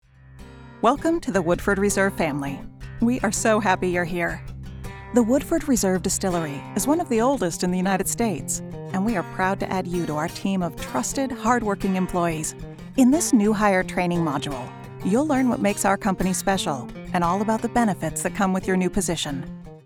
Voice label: eLearning Winery HR